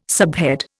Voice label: "subhead", Indian